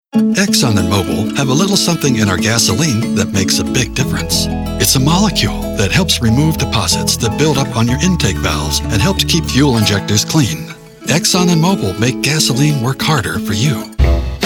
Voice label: Commercial